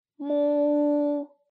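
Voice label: "mu", Mandarin pinyin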